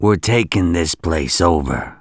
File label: Buy premises, English, Male; gangsters